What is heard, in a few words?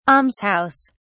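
"alms house", British English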